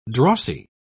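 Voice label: "drossy", British English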